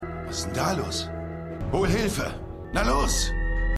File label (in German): Arbeiter, Stimmproben